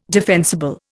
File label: "defensible", Indian